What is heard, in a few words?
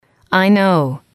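"I know", English, female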